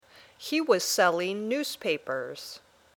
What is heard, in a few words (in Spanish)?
Dictado